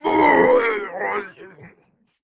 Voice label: Male Tauren Death; Hearthstone; датамайн обновления 5.0

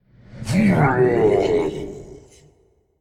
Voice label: Male Faceless Death; Hearthstone; датамайн обновления 5.0

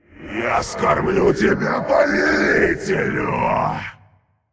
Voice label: Male Faceless Attack; Hearthstone; датамайн обновления 5.0

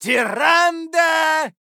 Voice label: INNKEEPER Male Dwarf

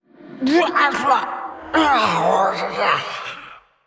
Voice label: Androgynous Faceless Death

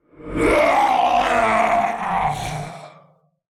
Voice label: Male Giant Death

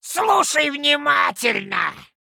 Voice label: Male Gnome Trigger; Hearthstone; датамайн обновления 5.0

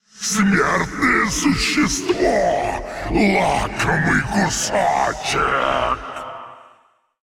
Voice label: Male Monster Attack; Hearthstone; датамайн обновления 5.0

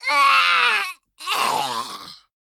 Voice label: Female Goblin Death

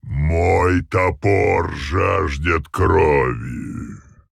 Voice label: Male Tauren Play; Hearthstone; датамайн обновления 5.0

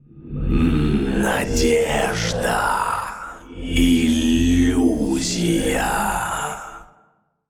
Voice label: Male Old God In Play

